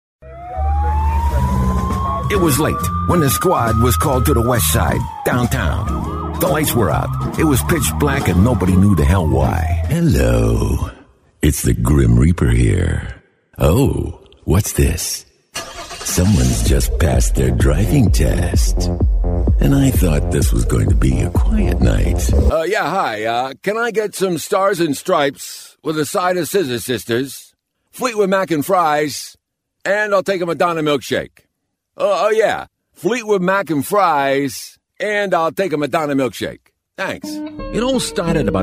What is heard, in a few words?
deep american characters, Powerful, Deep American Voice